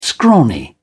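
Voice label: "scrawny", British English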